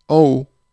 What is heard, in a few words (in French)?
"en haut", francais